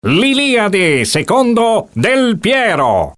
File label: Jingle; delpiero